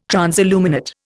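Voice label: "transilluminate", Indian English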